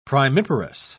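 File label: "primiparous", British English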